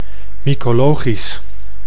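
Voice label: "mycologisch", Dutch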